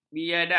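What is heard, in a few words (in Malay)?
"biadab", Malay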